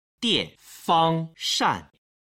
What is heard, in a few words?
"dianfangshan", Chinese